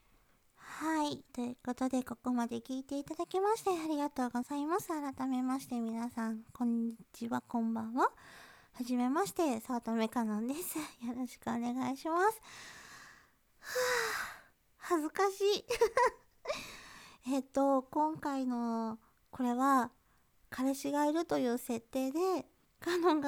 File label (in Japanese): 感想と挨拶 モノラル